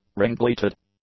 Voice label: "ringleted", English; adjectives

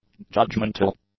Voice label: "judgemental", english

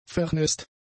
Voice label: "vernässt", German; adjectives

